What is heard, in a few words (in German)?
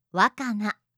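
"wakana", japanische Mädchennamen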